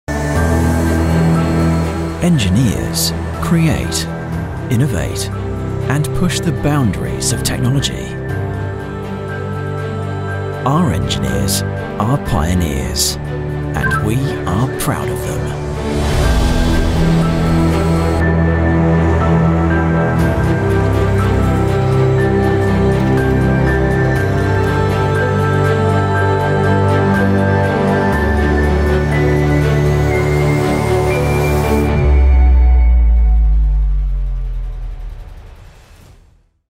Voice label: corporate video voice over young UK male voice over; AllSeas Engineers Pioneers